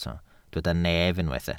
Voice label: "nef", Welsh

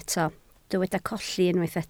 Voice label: "colli", Welsh

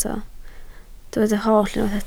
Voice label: "holl", Welsh

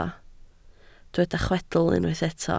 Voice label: "chwedl", Welsh

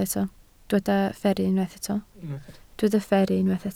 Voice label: "fferru", Welsh

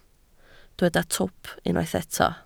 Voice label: "twp", Welsh

